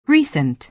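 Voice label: "recent", American English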